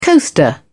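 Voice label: "coaster", British English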